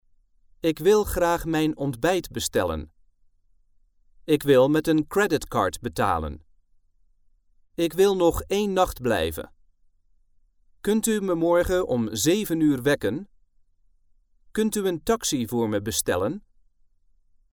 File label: Dutch male